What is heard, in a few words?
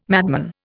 "madman", Indian